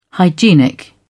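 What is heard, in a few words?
"hygienic", British English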